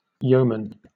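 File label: "yeoman", British English; pronunciations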